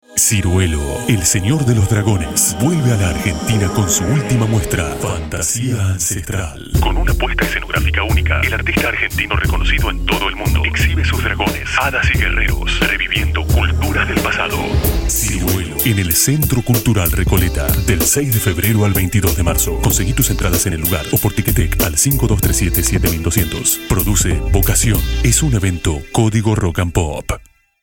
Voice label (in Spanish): spot; fantasia ancestral